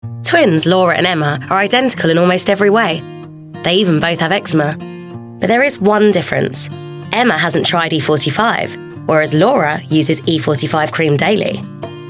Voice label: Welsh; E45